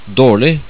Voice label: "darlig", Norwegian